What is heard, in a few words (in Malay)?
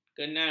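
"kenal", Malay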